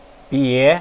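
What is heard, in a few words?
"bie", Mandarin Chinese, second tone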